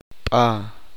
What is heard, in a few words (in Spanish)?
uspanteko cabeza